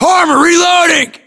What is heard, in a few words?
inform reloading, US